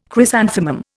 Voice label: "chrysanthemum", Indian

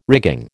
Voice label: "rigging", American

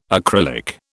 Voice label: "acrylic", British

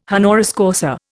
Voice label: "honoris causa", Indian

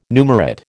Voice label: "numerate", American

